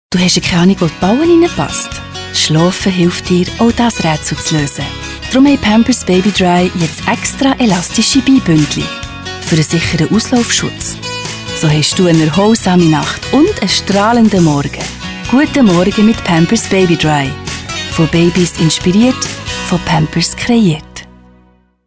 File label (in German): TV Spot Deutsch, sprecherin; Pampers Baby Dry